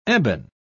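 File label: "ebon", British English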